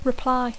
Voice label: "reply", English; Dacco